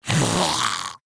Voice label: Pain, Grineer Ghoul; Warframe; Grineer Ghoul Expired; Battle Barks